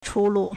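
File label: "chūlù", Chinese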